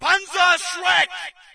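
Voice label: British panzerschreck; player; source engine; dod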